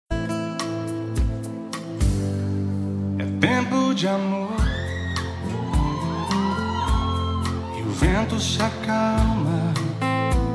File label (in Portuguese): aniversario esposa, telemensagem